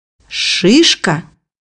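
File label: "pine cone", Russian; bukvar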